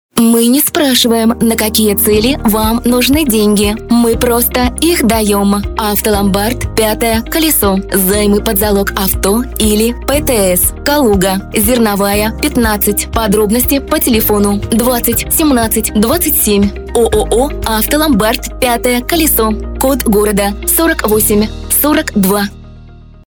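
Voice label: voice assistant robot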